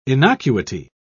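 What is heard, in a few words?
"innocuity", British English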